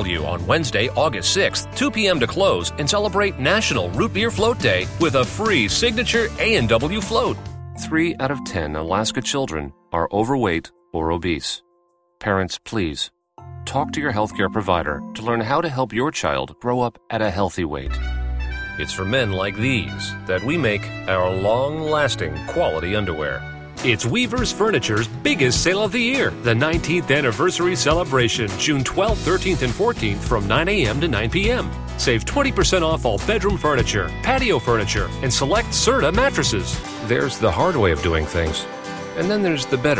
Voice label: Commercial VO